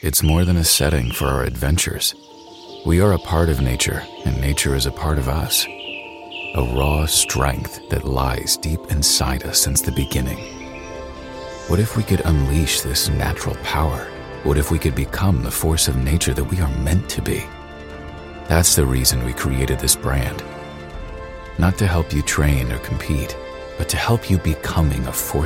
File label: American English Voiceover